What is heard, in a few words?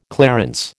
"clarence", American